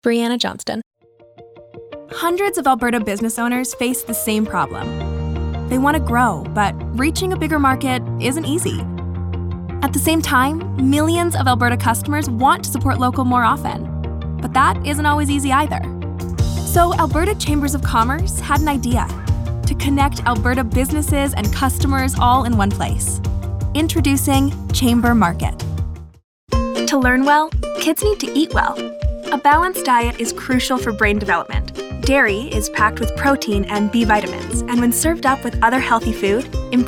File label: Commercial